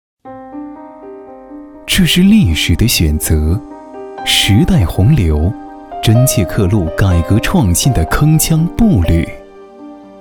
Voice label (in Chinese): 男 专题 舒缓专题